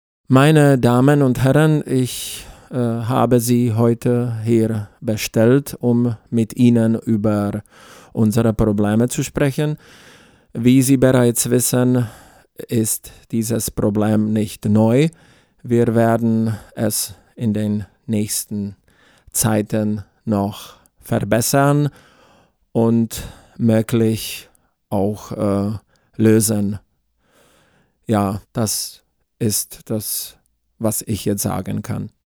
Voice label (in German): Deutsch mit tschechischem Akzent